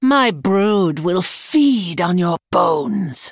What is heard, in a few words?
Female Blood Elf Play